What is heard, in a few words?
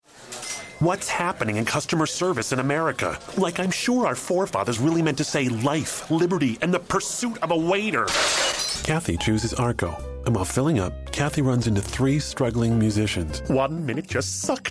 commercial